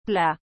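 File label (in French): "plat", French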